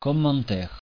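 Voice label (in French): "commentaire" French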